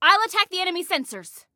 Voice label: Self Attack Sensors, Female; Female 02

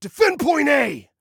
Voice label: DEFEND, Male

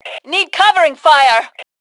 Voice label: Need Cover, Female; T2 Female 01

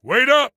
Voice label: Team Wait, Soldier Male

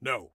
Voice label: No, Soldier Male

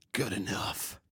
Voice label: Compliment Awesome, Male

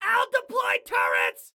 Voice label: Self Task Deploy Turrets, Excited Guy

